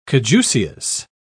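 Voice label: "caduceus", British English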